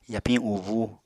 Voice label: "yapiñ ubúu", Apatani

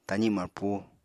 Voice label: "tazi marpu", Apatani

